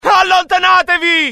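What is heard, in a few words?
fear grenade, IT; dialogue; mohaa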